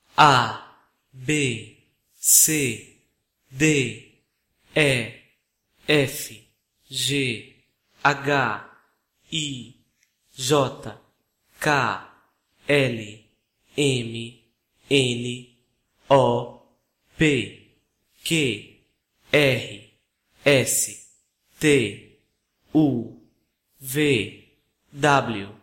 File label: portuguese alphabet